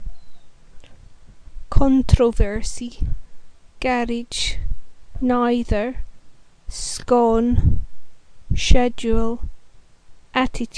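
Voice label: ayrshire scotland female 6 words, accent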